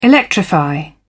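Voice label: "electrify", British English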